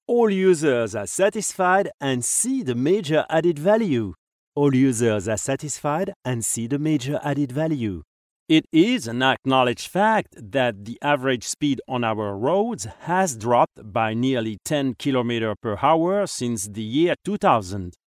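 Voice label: French France, male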